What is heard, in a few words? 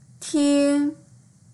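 "ting1", Mandarin Chinese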